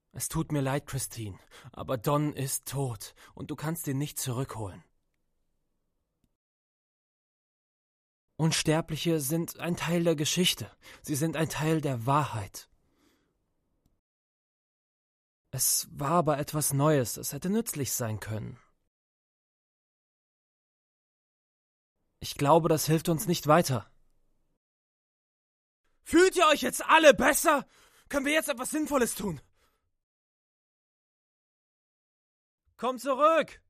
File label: Drama, German; DE